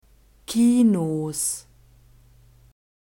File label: "kinos", german